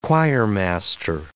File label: "choirmaster", English